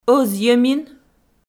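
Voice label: "ӧзйӧмин", Komi-Zyrian, female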